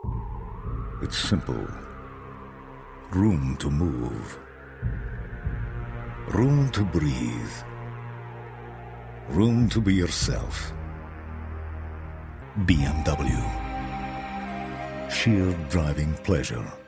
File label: male English Scottish commercial; bmw